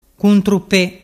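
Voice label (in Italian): "cuntrupe", Vernazzese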